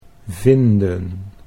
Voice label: "vinden", Dutch; 'to find'